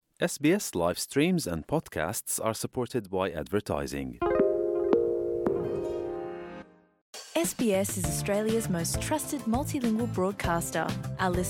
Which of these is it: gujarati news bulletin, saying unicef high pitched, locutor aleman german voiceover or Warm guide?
gujarati news bulletin